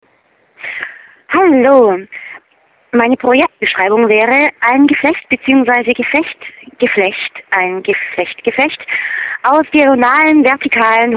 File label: Voicemail